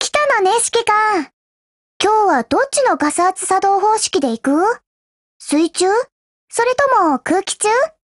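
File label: HELLO Japanese, Voice; GF Alarm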